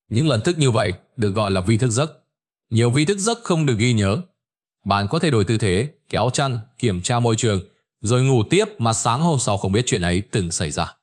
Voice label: narration; Vi Sao Nao Bo Danh Thuc Ban Truoc Nguy Hiem; StickerMan